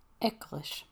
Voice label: "Church", Gaelic